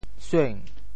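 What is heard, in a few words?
"sueng2", Teochew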